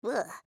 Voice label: Emote Negative; Luna